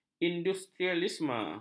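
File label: "industrialisme", Malay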